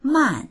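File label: "man4", Chinese; 慢; 遅い（動作が）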